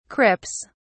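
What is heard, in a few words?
"crips", British English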